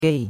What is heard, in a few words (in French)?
"gei4", chinois; Cours-de-Chinois